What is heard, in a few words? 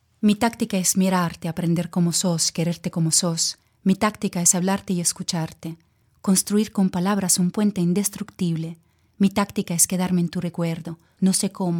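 Spanish Poesia Narration; Tactica y Estratejia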